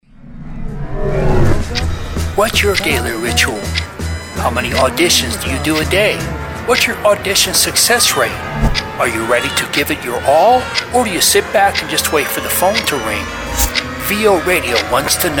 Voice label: promo day in life